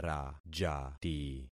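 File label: "rajati", concatenated syllables; Affixing experiment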